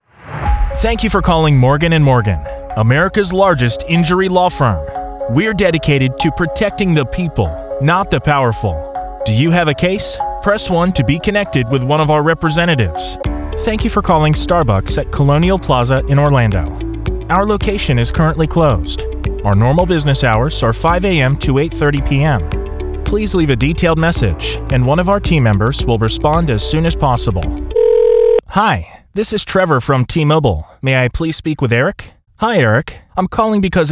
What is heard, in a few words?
Telephony, voiceover